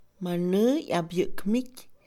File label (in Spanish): "boca del río", Mixe, Mujer; INALI